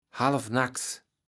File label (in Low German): "halfnaks", kaevels platt